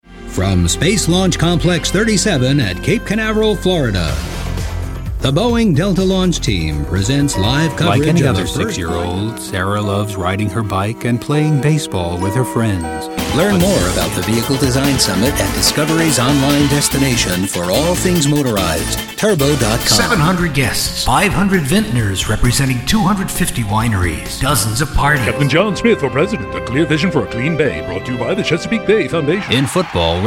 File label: short commercial